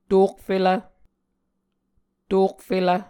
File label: "tokfelv", Muscogee